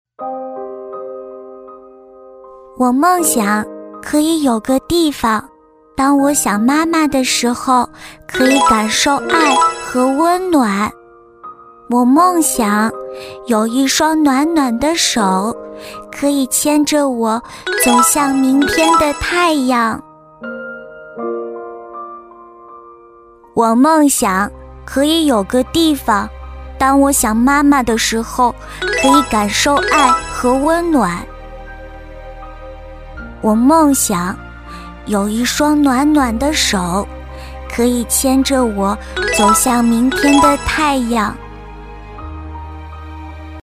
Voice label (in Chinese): 女 女童